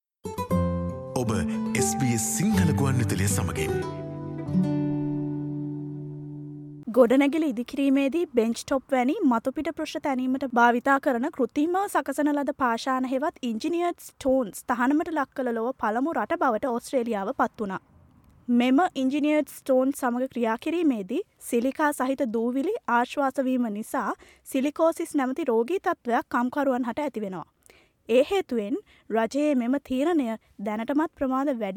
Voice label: explainer sinhala stones; sbs